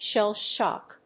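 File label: "shell shock", English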